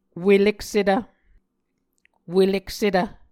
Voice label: "weleksetv", Muscogee

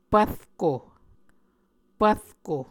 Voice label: "pvrko", Muscogee

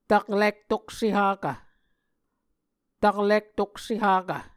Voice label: "taklik tokse hakv", Muscogee

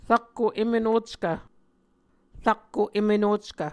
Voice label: "rakko em enockv", Muscogee

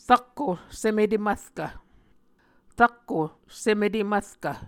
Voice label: "rakko sem etemvrkv", Muscogee

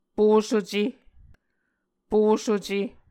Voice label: "posuce", Muscogee

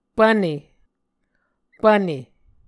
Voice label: "pvne", Muscogee

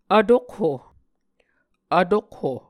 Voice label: "vtokho", Muscogee

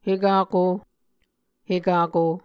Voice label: "hekako", Muscogee

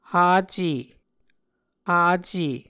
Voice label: "hace", Muscogee

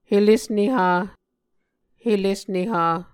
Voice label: "helesneha", Muscogee

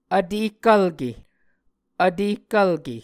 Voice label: "vtehkvlke", Muscogee

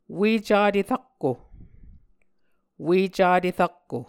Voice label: "Ue Cate Rakko", Muscogee

